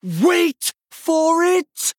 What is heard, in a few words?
Male Night Elf Attack